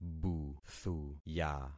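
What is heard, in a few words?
"buthuya", concatenated syllables; Affixing experiment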